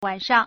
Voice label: "wan3shang", Chinese